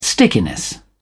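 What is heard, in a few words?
"stickiness", British English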